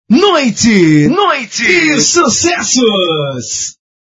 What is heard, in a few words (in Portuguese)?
Vinheta; Noite de sucessos